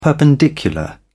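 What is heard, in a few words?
"perpendicular", British English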